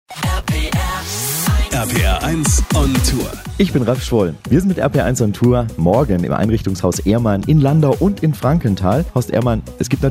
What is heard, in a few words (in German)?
Infomercial; OnTour; Ehrmann; RPR1